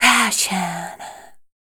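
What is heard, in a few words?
vocal female, Dance Vocals, spoken words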